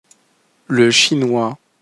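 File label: "le chinois", French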